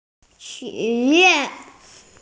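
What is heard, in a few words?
"shi2yue4", Mandarin Chinese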